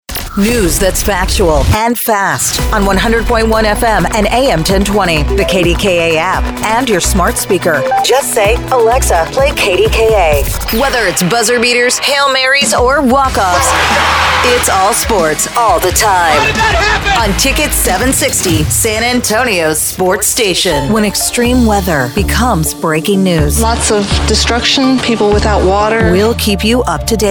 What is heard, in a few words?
radio imaging women